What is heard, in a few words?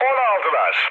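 Turkish aircraft destroyed, mood low, speech; War Thunder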